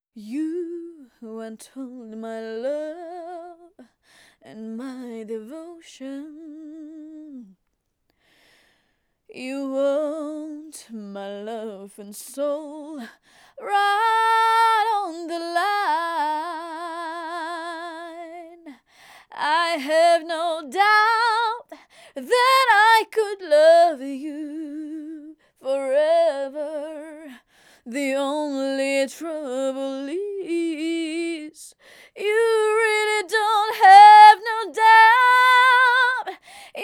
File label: sennheiser e664, vocal; beyerdynamic; test-beyer-studio-mics